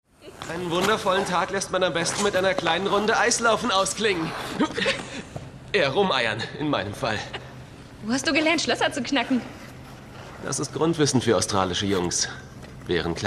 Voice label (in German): Synchron; Lieber Typ (Secret Circle)